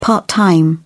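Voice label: "part-time", British English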